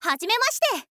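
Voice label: "hazimemashite", girl, Japanese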